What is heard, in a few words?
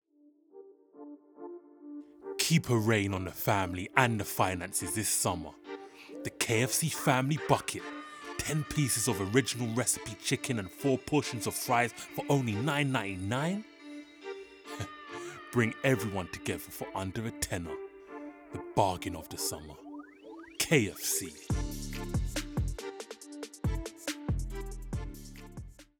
Commercial; KFC